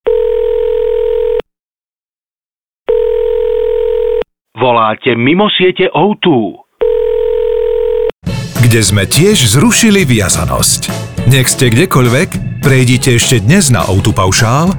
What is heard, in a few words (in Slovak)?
radio spot s hudbou